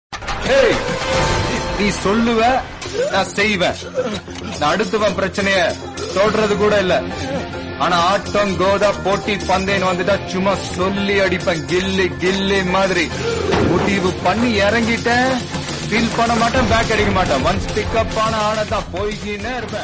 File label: mass dialogue; Vijay